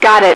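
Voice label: "got it", female radio voice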